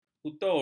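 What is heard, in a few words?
"kutur", Malay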